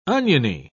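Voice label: "oniony", British English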